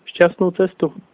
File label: bon voyage, slovak